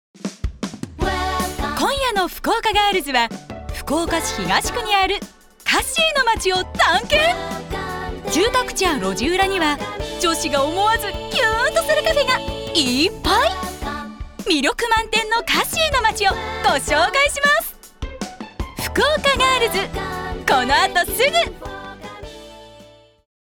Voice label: 番組紹介明るめ

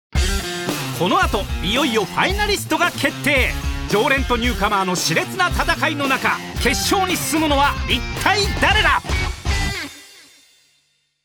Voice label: 番組告知ハリ系

